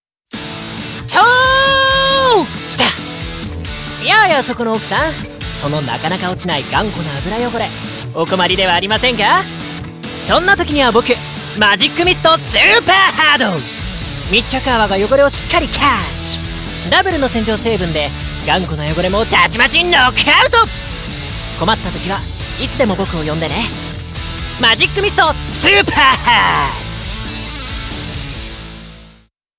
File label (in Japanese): ナレーション